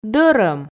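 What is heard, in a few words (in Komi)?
"дӧрӧм", Komi, female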